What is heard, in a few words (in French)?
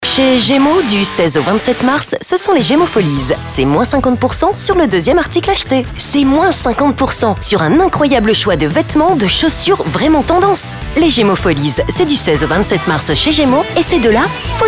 Pub radio medium; Gemo Folies